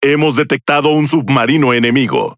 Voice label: Es Speech Detection Enemy Sub; World Of Warships

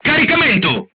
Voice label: reloading, crew tanks italian; War Thunder

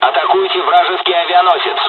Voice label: bombing, dialogs russian; midway us; War Thunder

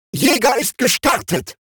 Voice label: robot Launch; Cat Fight; World Of Warships

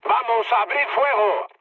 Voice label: attack position, mood med, speech; War Thunder

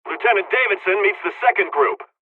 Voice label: dialogs hungarian; War Thunder

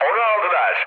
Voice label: aircraft destroyed, mood med, speech tur; War Thunder

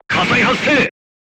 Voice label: voice message fire in tank, crew tanks japanese

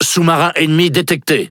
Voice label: Speech Detection Enemy Sub, FR; World Of Warships

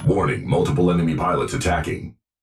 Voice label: multiple enemy pilots attacking, Titan, EN, Voice; Titanfall 2; Legion

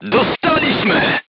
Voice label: voice message player tank hit, polish

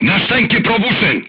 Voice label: voice message player tank hit, crew tanks serbian

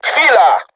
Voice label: voice message art reloading, crew tanks polish

